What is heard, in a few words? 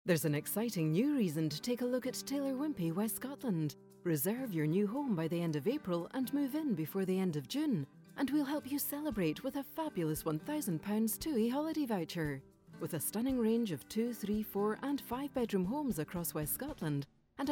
Radio; Taylor Wimpey